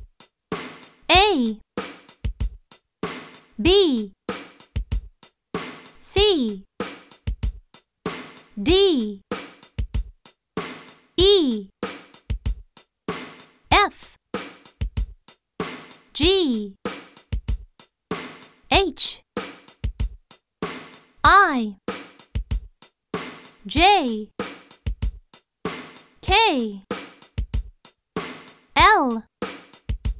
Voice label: Alphabet Jingle Countries Point And Say The Key Words; Unit 1